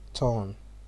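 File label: "turn", Nigerian Pidgin, male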